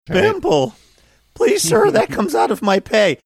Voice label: saying pimple please sir that comes out of my pay squeaky broken